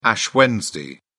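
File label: "ash wednesday", English, British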